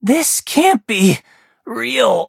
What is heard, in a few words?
hit, en; Cookie Run